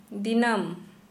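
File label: Santali, female, every day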